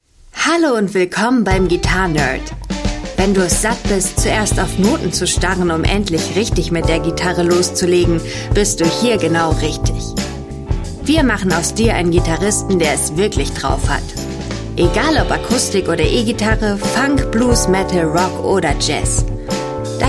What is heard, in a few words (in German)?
Tutorial